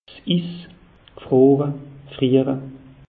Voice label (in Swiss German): "is", alsace; meteo